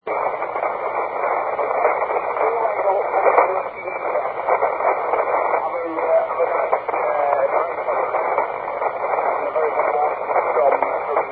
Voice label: 40m ssb; SSB-SP

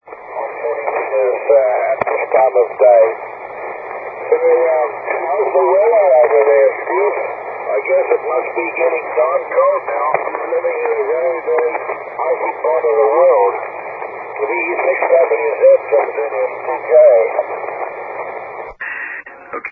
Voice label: 40m SSB; SSB-SP

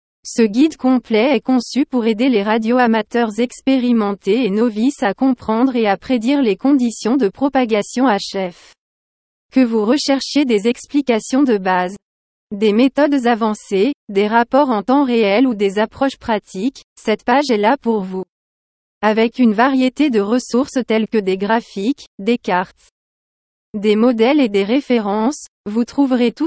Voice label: French

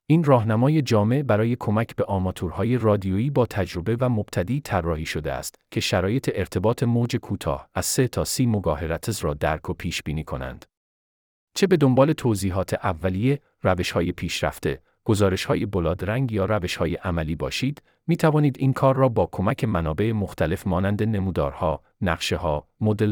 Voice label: Persian

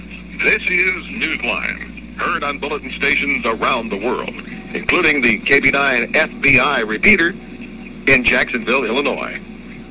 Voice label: news

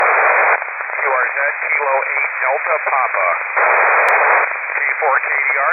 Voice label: fm voice

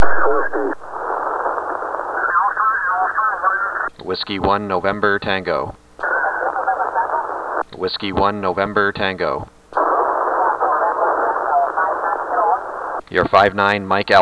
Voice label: azores 160m ssb; 2009 arrl ssb

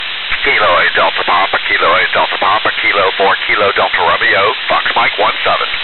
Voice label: fm voice

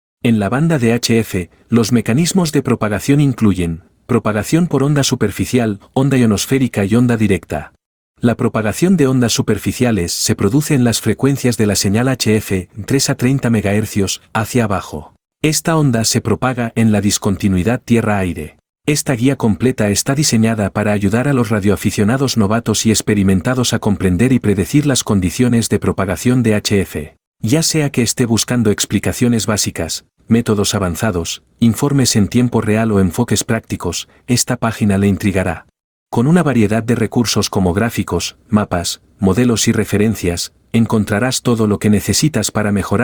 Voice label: Spanish

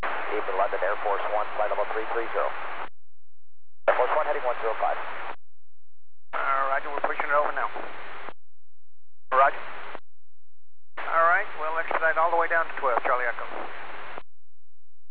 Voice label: air vhf; airforce one vhf landing at heathrow

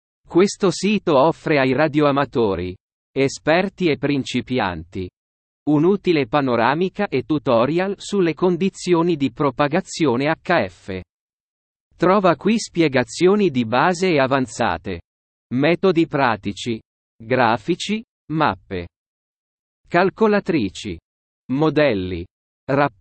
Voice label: Italian

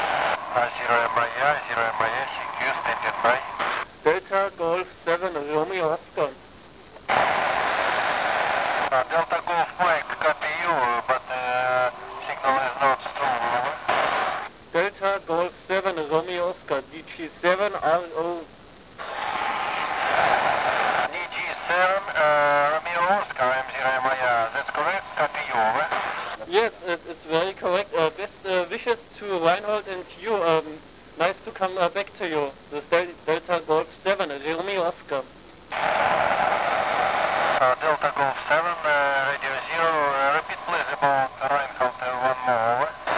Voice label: qso mir

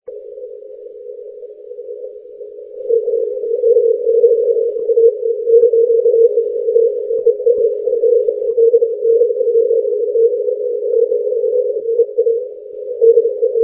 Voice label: cq 40m

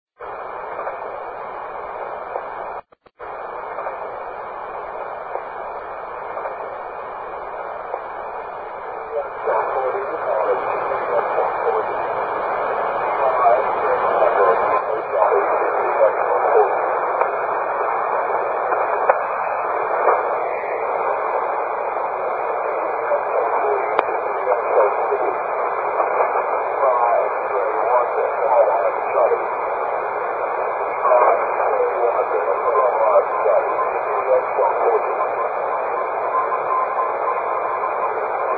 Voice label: 40m ssb

